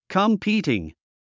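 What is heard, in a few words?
"competing", English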